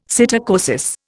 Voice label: "psittacosis", Indian; Dictionary

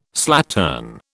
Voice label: "slattern", British

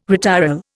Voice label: "retiral", Indian

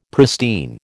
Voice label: "pristine", American

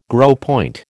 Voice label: "gros point", American

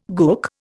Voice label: "gook", Indian

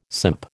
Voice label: "simp", American

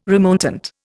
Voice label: "remontant", Indian

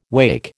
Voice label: "wacke", American

